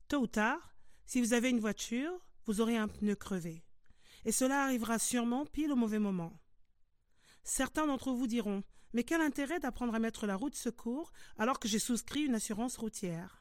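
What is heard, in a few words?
French, General; GoLocalise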